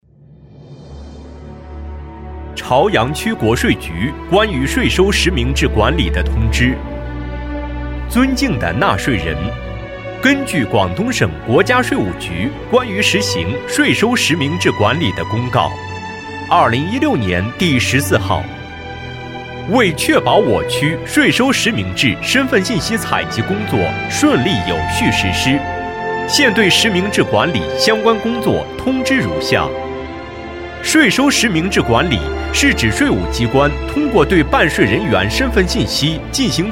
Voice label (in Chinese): 通知; 朝阳区国税局